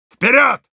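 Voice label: go, Russian; Heavy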